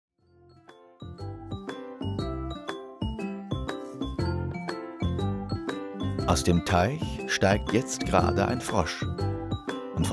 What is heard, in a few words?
trailer; sternfreunde